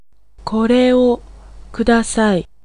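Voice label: Japanese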